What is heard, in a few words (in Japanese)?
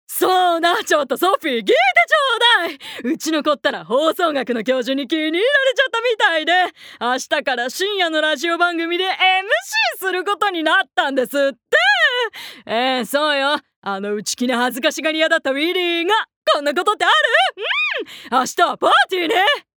外画